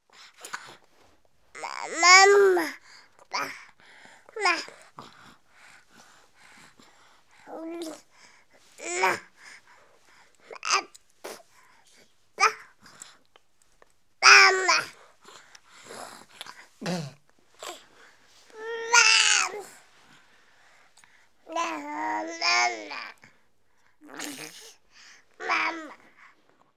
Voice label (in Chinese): 说话(九个月婴儿)